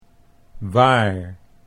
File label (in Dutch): "waar", Dutch